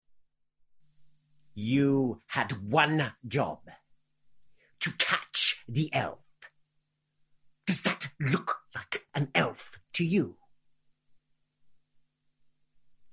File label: Character Voice